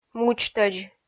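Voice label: "мудзтӧдз" Komi, female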